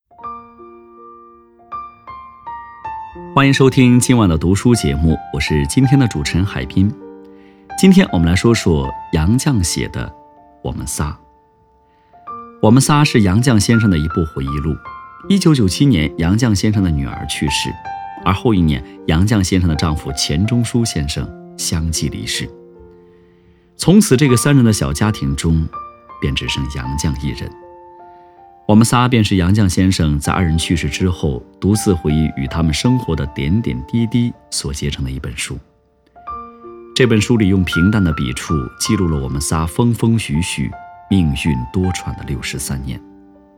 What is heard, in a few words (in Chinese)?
读书口语表达 自然讲述; 我们仨